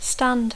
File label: "stand", English